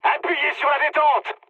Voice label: attack position mood high, speech fr; War Thunder